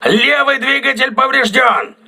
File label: voice message left engine damaged, crew ships russian